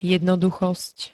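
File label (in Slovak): "jednoduchosť", Slovak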